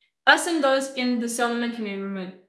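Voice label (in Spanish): Voice Acting es F, Spanish, female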